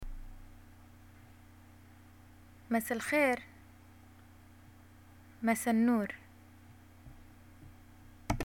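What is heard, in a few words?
greet goodevening, Jordanian arabic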